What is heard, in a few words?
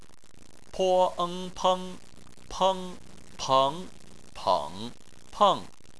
"peng", Chinese